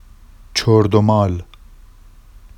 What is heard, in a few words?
“ʧordomɑl”, Vafsi; adj beaten up badly; چُردُمال